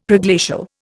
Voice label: "Preglacial", Indian; Dictionary